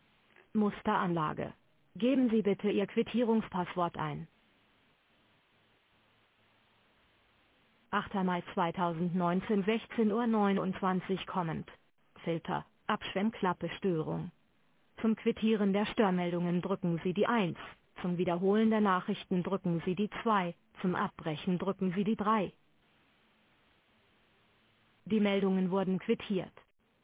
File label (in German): Sprachaufzeichnung Alarmierung Musteranlage